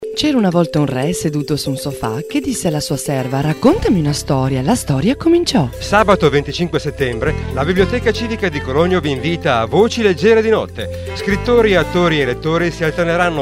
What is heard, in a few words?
spot